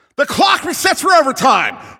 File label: Announcer Overtime Starts